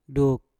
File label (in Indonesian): "duk" kelelawar, Mpur